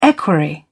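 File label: "equerry", British English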